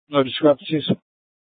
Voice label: Court Security Dispatch, scanner